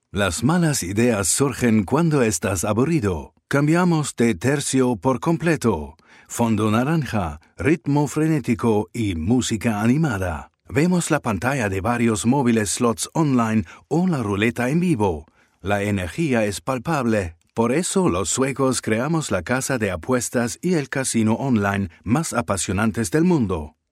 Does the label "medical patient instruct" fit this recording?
No